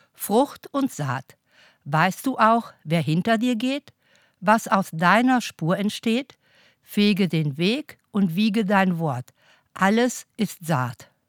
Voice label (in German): Hochdeutsch; Auf der Burg; Nottuln; Plattdeutscher Weg